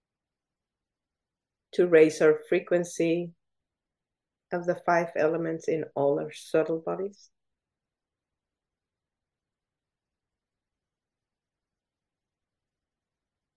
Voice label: Meditation